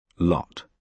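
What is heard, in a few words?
"lot", British English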